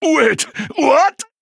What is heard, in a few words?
Male Troll Death